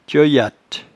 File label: "tieuyatte", patois jura